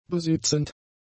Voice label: "besitzend", german; adjectives